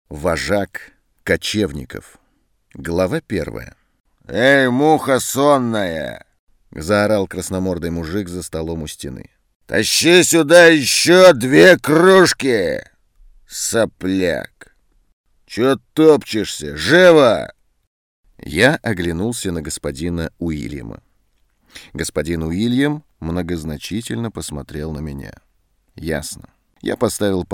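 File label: hud rasskaz Rus, Russian artistic story narration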